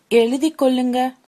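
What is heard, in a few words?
write, Tamil, female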